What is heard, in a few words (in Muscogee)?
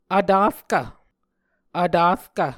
"vtarkv", Muscogee